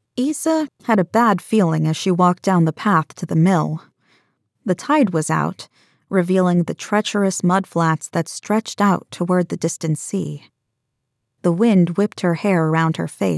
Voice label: Horror